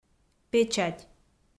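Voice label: "печать", Komi, female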